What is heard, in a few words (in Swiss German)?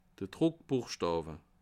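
"druckbuechstawe", Bas Rhin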